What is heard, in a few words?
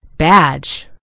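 "badge", American English; gcompris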